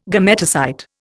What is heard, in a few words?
"gametocyte", Indian